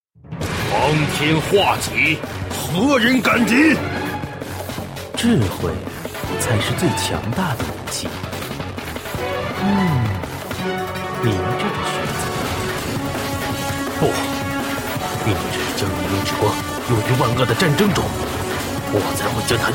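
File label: male sturdy uncle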